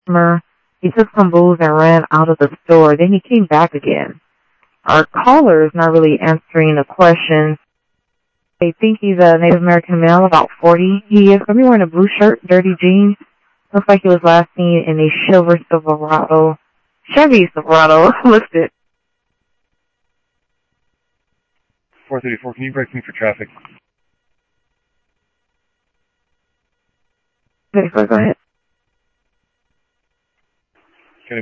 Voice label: South Scottsdale Sheriff, scanner audio